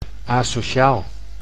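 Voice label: "asociaal", Dutch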